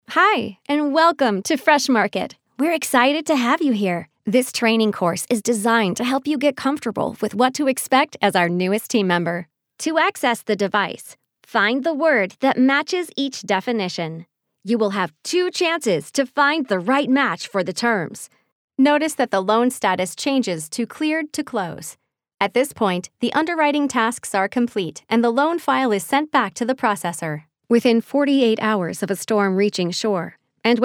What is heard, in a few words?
eLearning